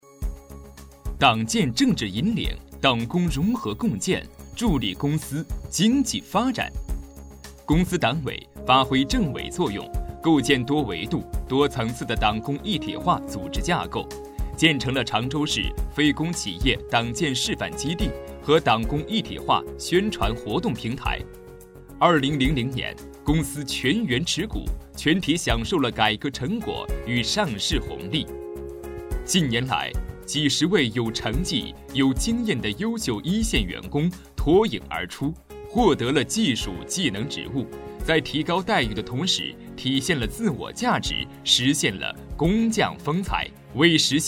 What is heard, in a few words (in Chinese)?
男 专题; 千红党建